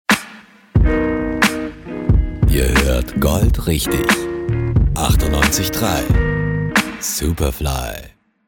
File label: Station-Voice smoove; Superfly